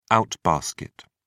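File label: "out-basket", British English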